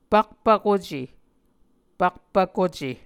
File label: "pakpvkuce", Muscogee